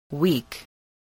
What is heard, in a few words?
"weak", spelling